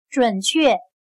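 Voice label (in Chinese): "准确" Mandarin Chinese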